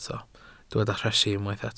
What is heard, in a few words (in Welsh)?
"rhesi", Welsh